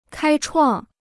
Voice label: "开创", Chinese